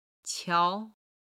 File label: "qiao", Chinese